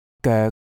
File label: "goek3" Cantonese